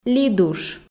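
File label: "лидуш", Komi, female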